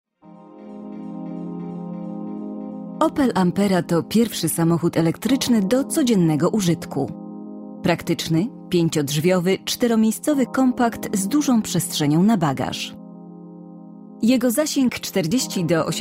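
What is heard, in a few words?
Polish, Corporate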